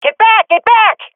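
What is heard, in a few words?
request fallback, swat female; vo; agents; Source Model Packs; Counter Strike Model Pack; CS2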